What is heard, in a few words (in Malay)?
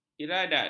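"iradat", Malay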